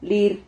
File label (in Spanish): "lir", Silabas